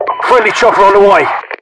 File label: friendly heli, localized english, UK voiceovers; mpvoice